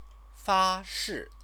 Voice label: "fashi", Chinese